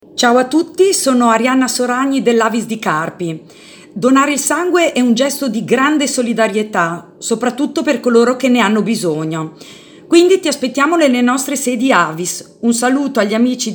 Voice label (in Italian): promo